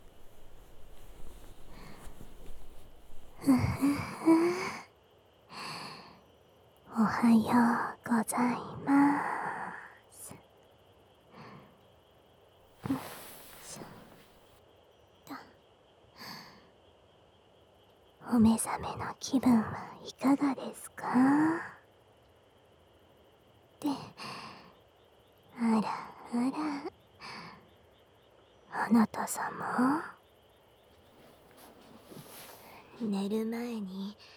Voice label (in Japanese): 豊かな胸で甘々ご奉仕…射精誘導（自然音 囁きブースト 射精音・効果音大きめ）; 隠れ宿 夢見亭 乃々佳; 和風癒しASMR